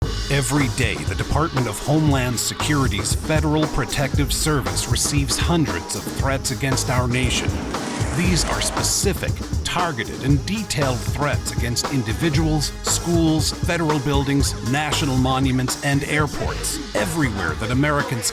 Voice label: TV Sizzle Reel Narration